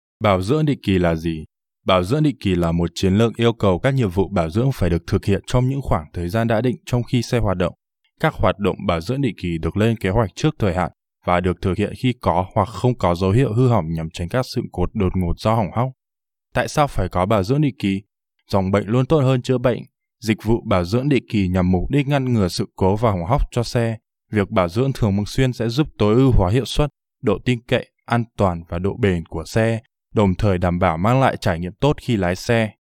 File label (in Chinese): 越南语男, 外语, 小语种, 成熟